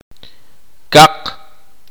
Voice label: "rojo", K'iche'